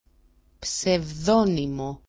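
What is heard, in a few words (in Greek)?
"psevdonimo", Greek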